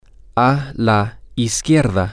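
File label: to The Left, spanish, male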